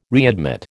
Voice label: "readmit", American